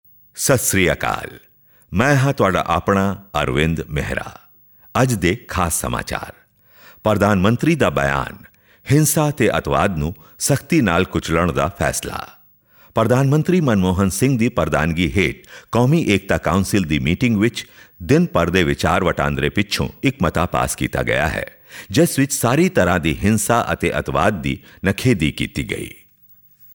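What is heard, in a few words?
Punjabi, voiceovers other languages; foreign languages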